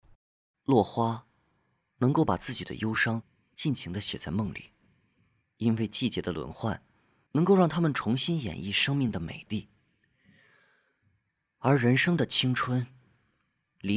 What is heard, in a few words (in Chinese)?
少年音独白